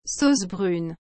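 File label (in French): "sauce brune", French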